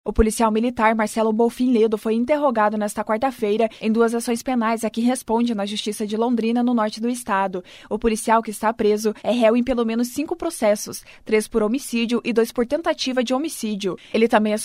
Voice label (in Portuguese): BOLETIM sem trilha; Policial militar acusado de pelo menos três homicídios e duas tentativas